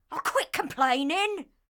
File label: Ranged Female Patrol Line; NPC; Heist Thug Captain Patrol